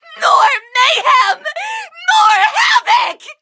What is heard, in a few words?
levelup en